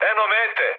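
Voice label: Serbian near waypoint mood high; speech; War Thunder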